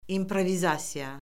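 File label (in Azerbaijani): "improvizasiya", Azerbaijani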